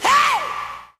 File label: "hey", Voice